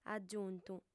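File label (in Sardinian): "agiuntu", lingua sarda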